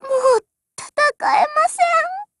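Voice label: Japanese, Voice; BREAK; GF Alarm; PPsh41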